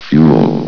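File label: "fuel", male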